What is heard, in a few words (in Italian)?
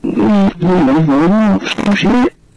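"legge", Italian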